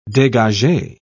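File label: "degage", British English, dictionary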